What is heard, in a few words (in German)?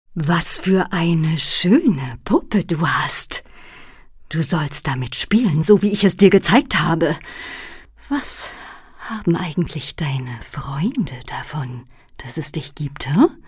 boese